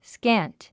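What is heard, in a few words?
"scant", English word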